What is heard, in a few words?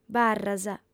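"barras", female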